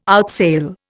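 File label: "outsail", Indian English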